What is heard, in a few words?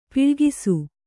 "ಪೊಳಕಿಸು", kannada